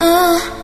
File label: "uh" female